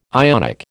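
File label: "Ionic", American English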